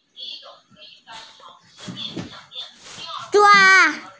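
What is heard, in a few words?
"zhua1", Mandarin Chinese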